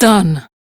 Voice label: Crew Task Done, Steel Meridian Female; Dialog; en; Warframe; RailjackCrew

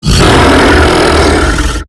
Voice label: Octopede Pain; Warframe; MiTW; Battle Barks

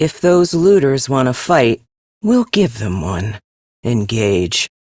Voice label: Captain Female, combat start, en; Warframe; Railjack; Crp Captain

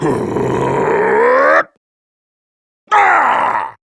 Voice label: Angry; Warframe; Tyl Regor Boss; Efforts

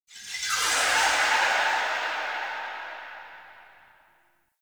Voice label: Unnatural Speech; Ambience; Warframe; Shadowgrapher

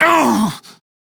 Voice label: Pain, Male; Dialog; en; Warframe; Railjack Crew; Red Veil

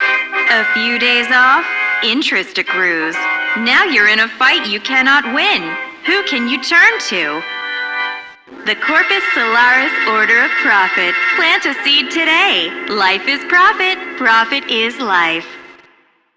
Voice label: PA System, en; Warframe; Solaris Venus

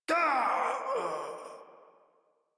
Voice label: Death Modular Corpus Male; Dialog; Barks; Battle; Warframe

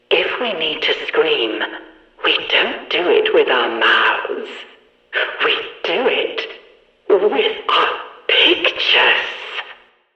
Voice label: Background Announcements; Warframe; Shadowgrapher; Follies Hunt

